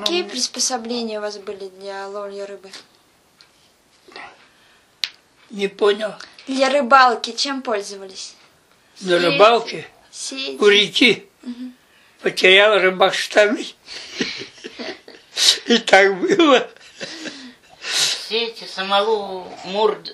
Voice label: middle ob dialect; чердак юбка дель